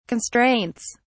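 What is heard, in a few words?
"constraints", English, British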